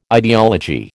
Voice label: "ideology", American

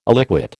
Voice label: "illiquid", American

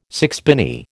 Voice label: "sixpenny", American

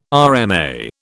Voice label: "RMA", British

